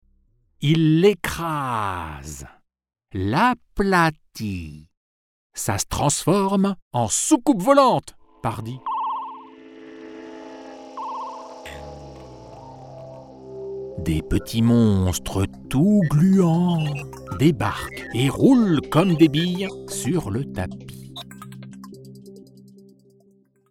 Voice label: voix off conte; patacrotte

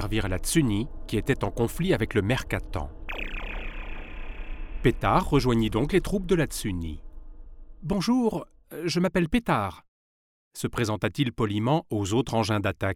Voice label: voix off conte char petard

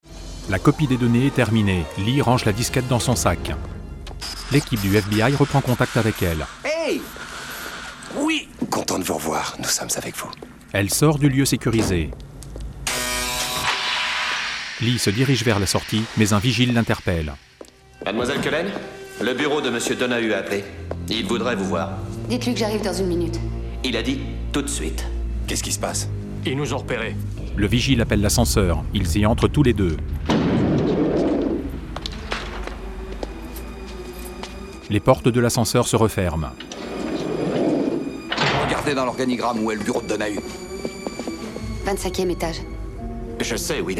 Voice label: voix off audiodesc; effaceur

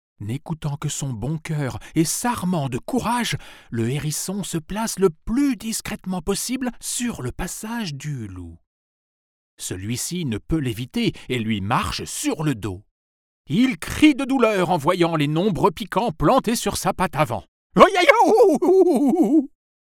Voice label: voix off conte mouton herisson

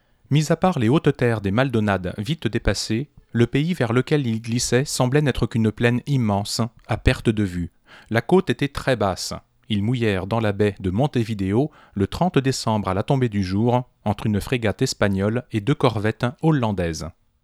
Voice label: mic comparaisons sennheiser e840

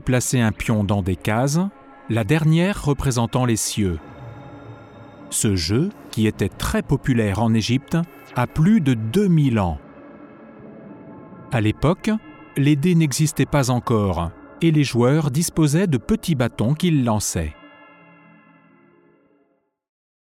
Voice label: voix off docu jeux hasard

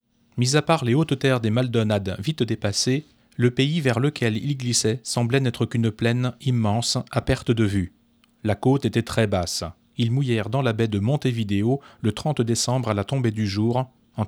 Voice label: mic comparaisons philips el6021

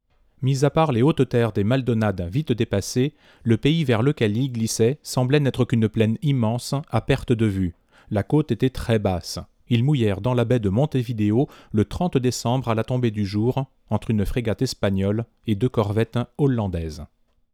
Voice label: mic comparaisons akg c414

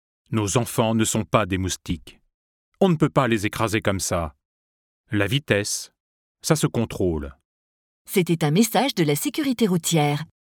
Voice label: voix off campagne prudence

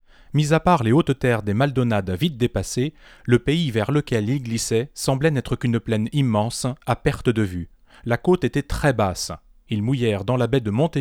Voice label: mic comparaisons shure sm7

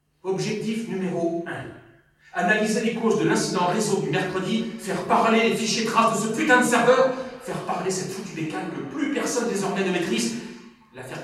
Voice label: voix off lecture voix haute; conjuration

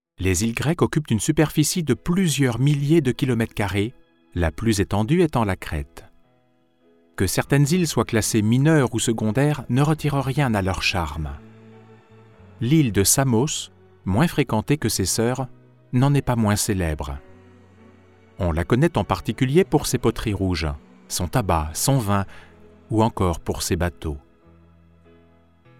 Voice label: voix off docu; grece

